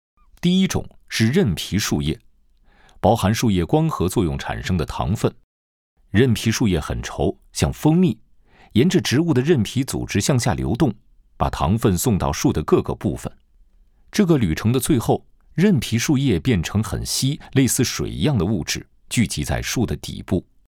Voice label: chinese mandarin explainer videos